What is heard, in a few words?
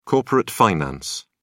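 "corporate finance", British English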